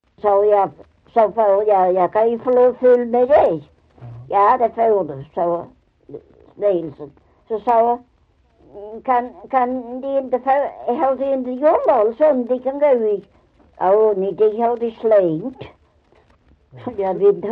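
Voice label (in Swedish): Maglarp Skåne, dialekter; Folkminnen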